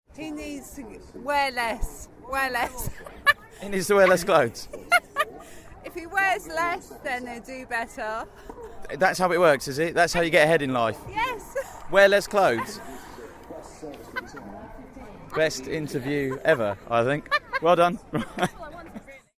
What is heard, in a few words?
vox pop; wimbledon riff raff